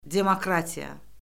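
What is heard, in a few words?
"demokratiya", Azerbaijani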